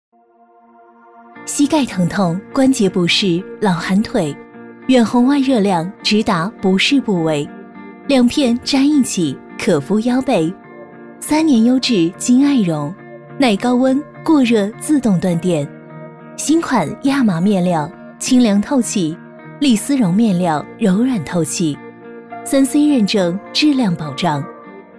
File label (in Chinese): 女 广告 服装 讲述; 南极人